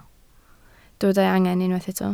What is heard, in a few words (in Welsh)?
"angen", Welsh